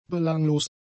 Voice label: "belanglos", German; adjectives